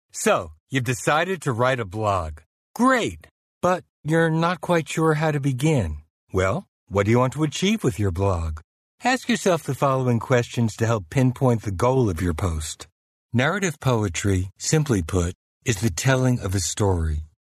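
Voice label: US English, male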